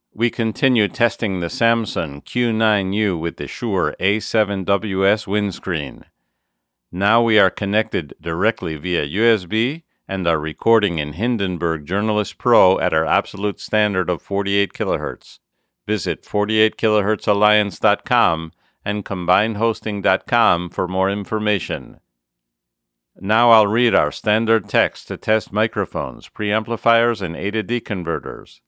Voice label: Samson Q9U review via USB NR

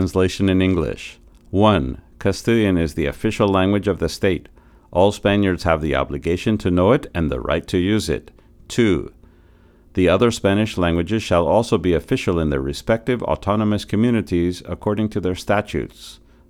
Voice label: Apogee Hype Mic

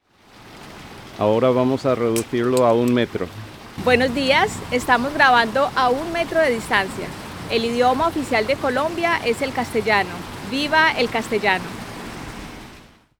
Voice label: park, Video Mic Go II

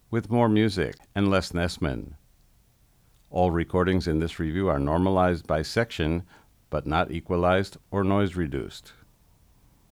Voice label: MVX2U compressor tests

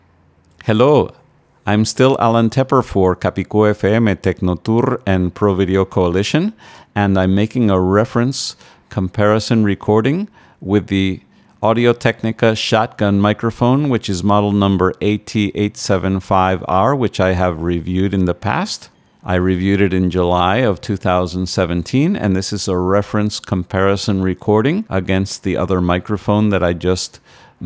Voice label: AT875R versus Rode Broadcaster leveled

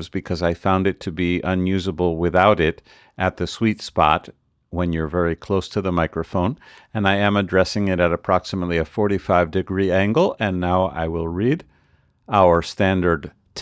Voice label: AKG Lyra cardioid NR compressed

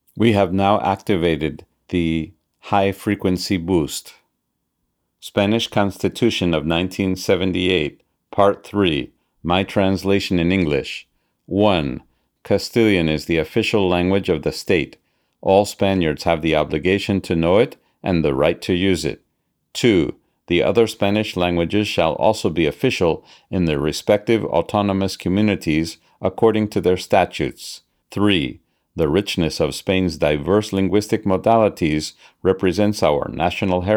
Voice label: VideoMic NTG 150Hz HFB NR DC

